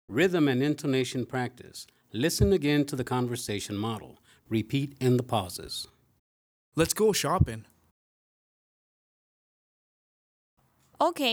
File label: Conversation Time Intonation Practice